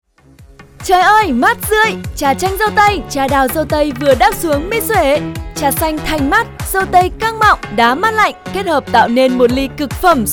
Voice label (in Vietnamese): GIONG NU MIEN BAC; MIXUE TRA DAU TAY